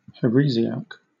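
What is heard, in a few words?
"heresiarch", British English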